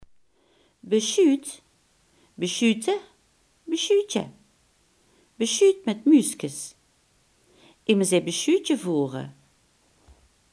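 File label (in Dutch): "beschuit" Limburgish dialect word; beschuit, besjuut (besjute, besjuutje)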